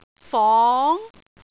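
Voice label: "fong2", Cantonese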